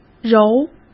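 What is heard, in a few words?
"揉", Mandarin Chinese; hsk; chinese flashcards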